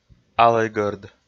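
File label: "алӧйгӧрд", Komi, male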